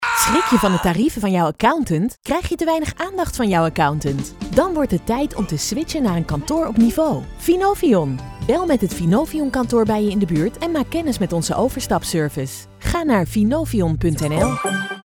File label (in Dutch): Radiospot; Finovion; NPO Radio1